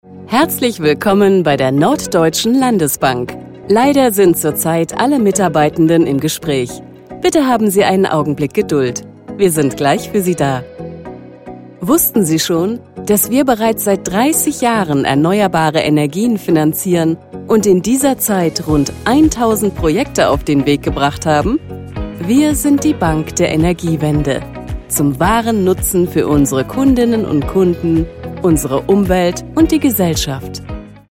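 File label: German, telephony; NORD LB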